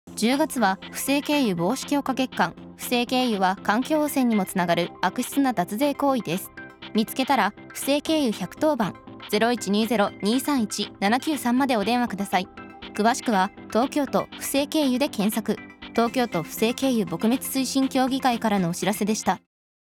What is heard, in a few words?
radio